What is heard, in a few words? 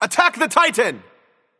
vox attack; smite; mercury; voicepack